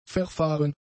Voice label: "verfahren", German; adjectives